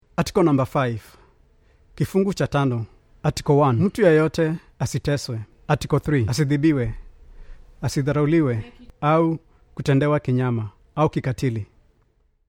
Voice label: Swahili